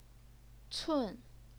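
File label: "cun", fourth tone, Chinese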